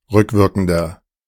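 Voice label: "rückwirkender", German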